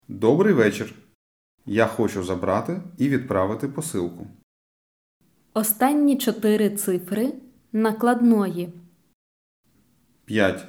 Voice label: Dialogue Slow